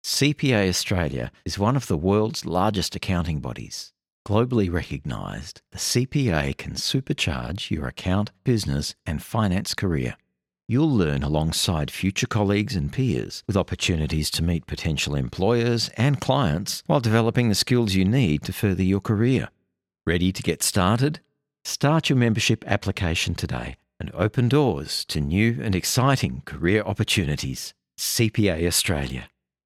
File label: Cold VO, Radio Commercials, Professional, Believable, Bold, male; CPA